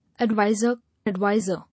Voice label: "Adviser; Advisor", English